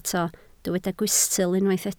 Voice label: "gwystl", Welsh

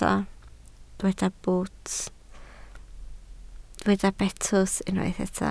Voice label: "betws", Welsh

